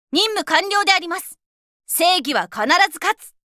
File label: Voice victory